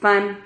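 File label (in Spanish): "fan", Spanish syllable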